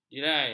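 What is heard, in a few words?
"jirai", Malay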